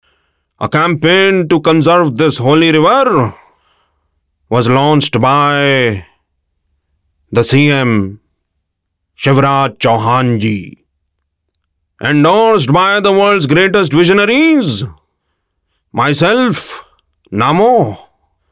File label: Mimicry English, male voice; Modi